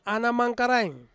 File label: "ana mangkaraing", Ansus; sago